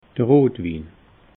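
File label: "rot win", Alsatian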